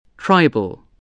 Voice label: "tribal", voice